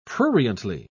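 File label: "pruriently", British English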